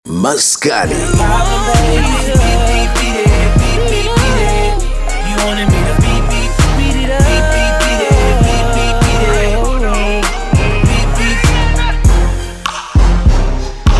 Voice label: swahili podcasts

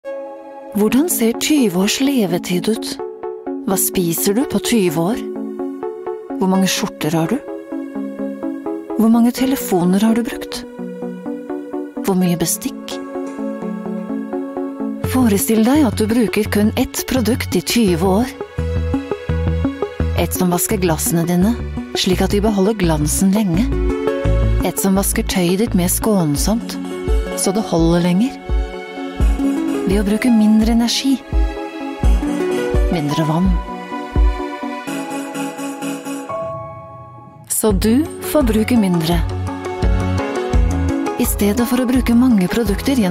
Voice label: norwegian corporate videos; professional voice over artist native in both German and Norwegian

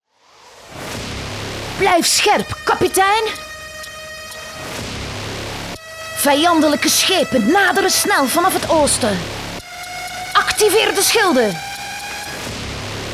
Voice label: flemish video games; flemish voice actors

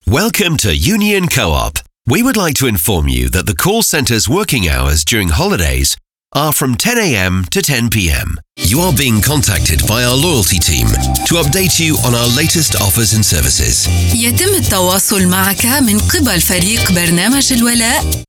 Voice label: english british ivr

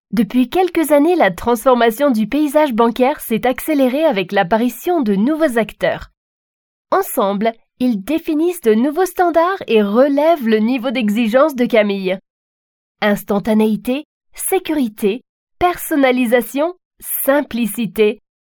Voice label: french e-learning

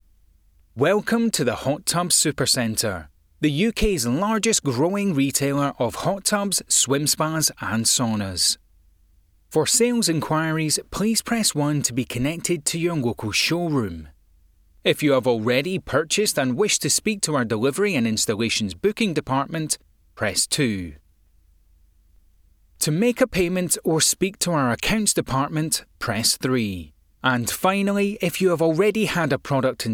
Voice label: English Scottish IVR

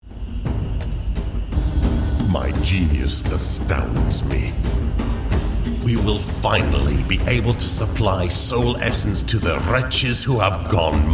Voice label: English British video games

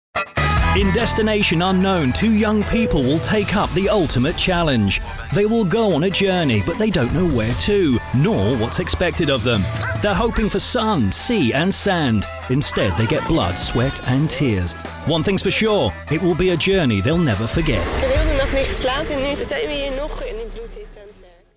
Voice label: english british commercial

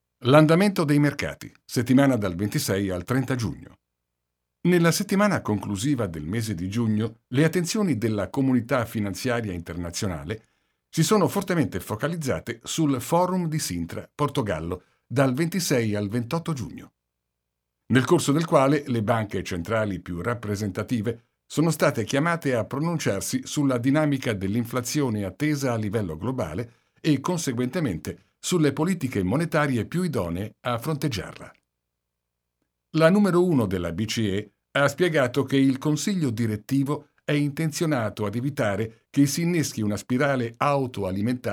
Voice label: italian podcasts

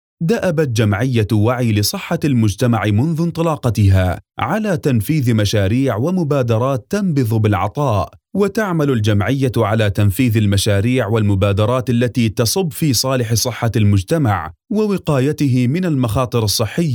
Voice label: arabic narration

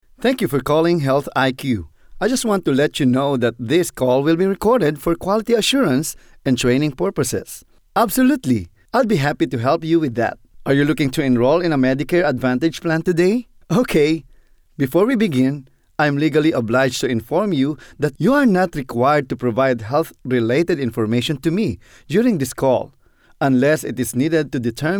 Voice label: english narration

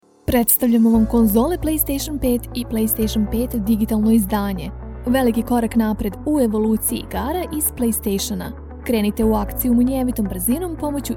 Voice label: serbian narration; Serbian native voice-over actor from Belgrade

